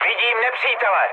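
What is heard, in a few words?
enemy detected, Czech, mood med; speech; War Thunder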